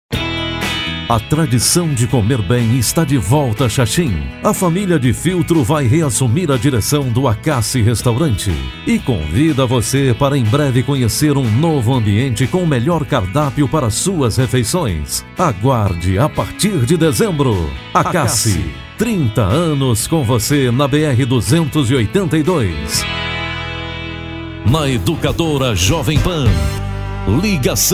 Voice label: vox locutor; studio elshaday

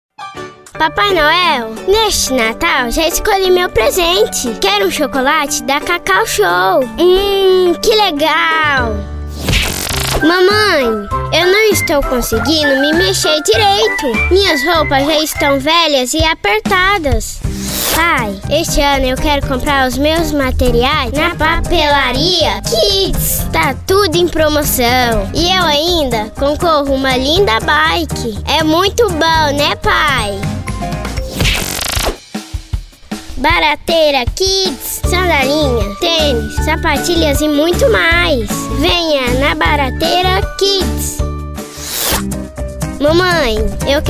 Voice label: locutora infantil, offs vinhetas spots comerciais

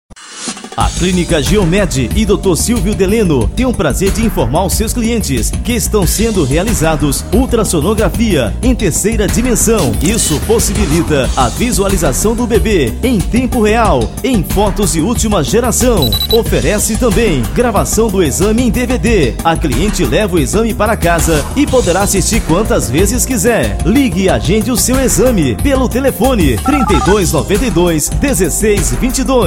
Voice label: spot clinica; giomed amarante

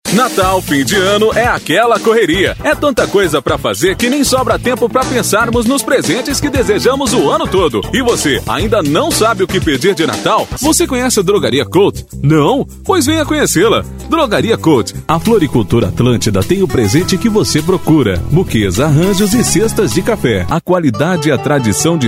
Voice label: locutor

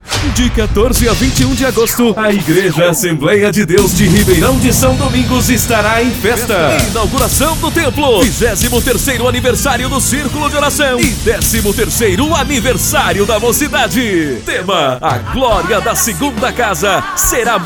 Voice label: spot ad

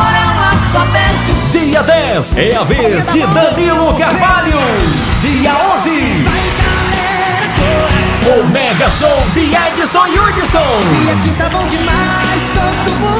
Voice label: spot festa; festa de mantena

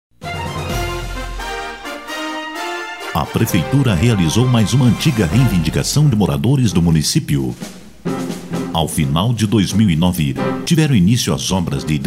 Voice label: voz padrao para politica

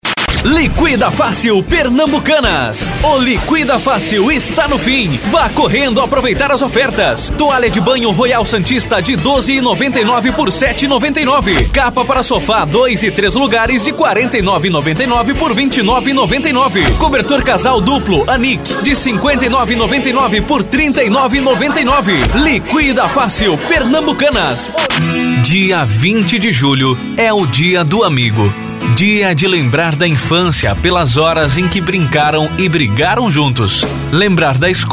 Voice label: banco de vozes locutor jovem varejo

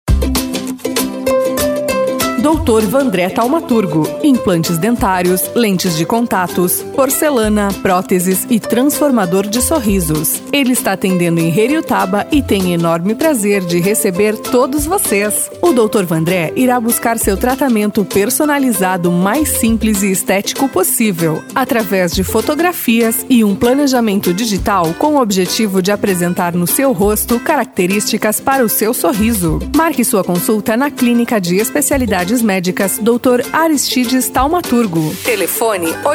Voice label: locução brasil

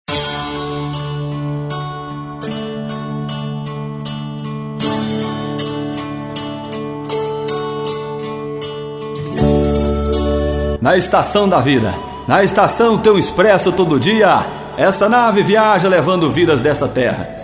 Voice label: locutor locução

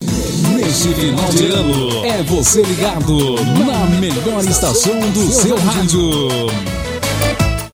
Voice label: vinheta, voz

